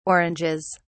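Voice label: "oranges", English, British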